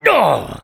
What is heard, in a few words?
negative vocalization, fr; Engineer